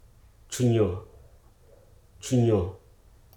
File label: "ice", hruso